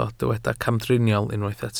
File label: "camdriniol", Welsh